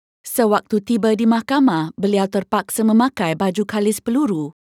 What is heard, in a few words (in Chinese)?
马来-客服风格